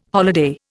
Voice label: "holiday", Indian